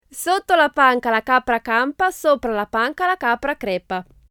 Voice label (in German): italienisch